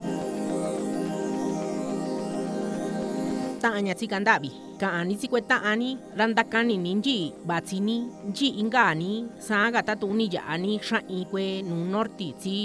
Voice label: MIXTECO